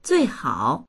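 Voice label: "zui4hao3", Mandarin Chinese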